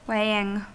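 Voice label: "weighing" English, US; pronunciations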